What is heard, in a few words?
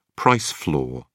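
"price floor", British English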